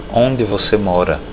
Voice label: where live, portuguese